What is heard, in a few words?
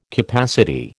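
"capacity", American English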